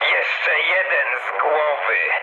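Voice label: player killed enemy mood high, speech Polish; War Thunder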